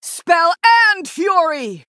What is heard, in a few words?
Female Human Attack